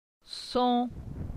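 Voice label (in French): "Son", French; phonetique; la syllabe on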